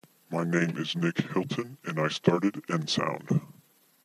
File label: my name is low pitch